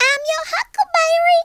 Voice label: "fireball", Southern Belle; speech